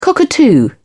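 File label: "cockatoo", British English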